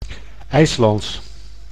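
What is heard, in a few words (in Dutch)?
"IJslands", Dutch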